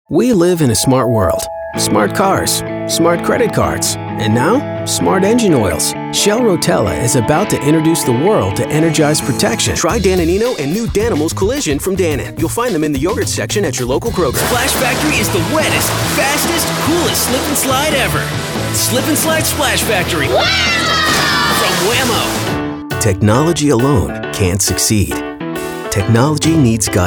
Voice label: Commercial, Male voices